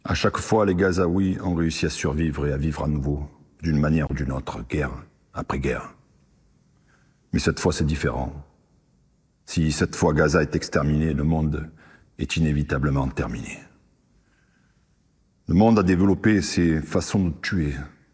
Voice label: poem, read by, French; Gaza; poem by Bisan Owda, read by Eric Cantona; with English subtitles